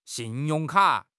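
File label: "xin4 yong4 ka3", Chinese; HSK3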